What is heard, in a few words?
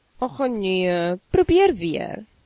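check answer, Chinese; gcompris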